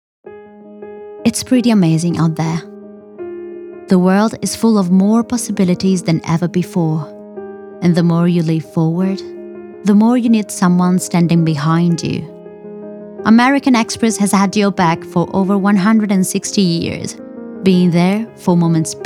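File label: Italian, English accent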